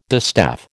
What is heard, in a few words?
"distaff", American